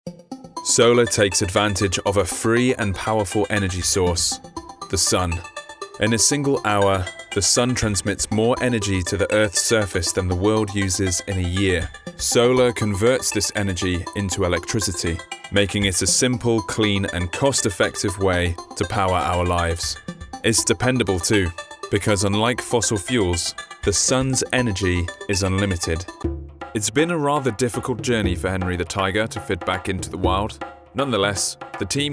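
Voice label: english male; lektoring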